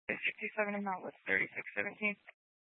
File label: Police Department Dispatch, scanner